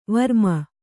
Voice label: "ವರ್ಮ", kannada

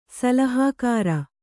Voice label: "ಸಲಹೆಗಾರ", Kannada

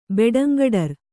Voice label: "ಬೆಡಂಗಡರ್", Kannada

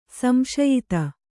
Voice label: "ಸಂಶಯಿತೆ", Kannada

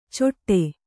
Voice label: "ಚೊಟ್ಟೆ", Kannada

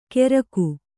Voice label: "ಕೆರಕು" Kannada

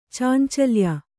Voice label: "ಚಾಂಚಲ್ಯ", Kannada

